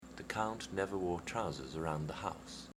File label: count trousers around house, rp